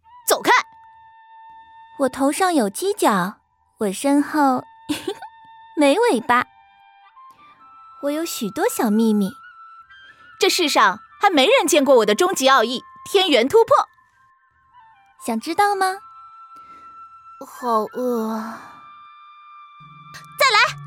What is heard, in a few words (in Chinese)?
女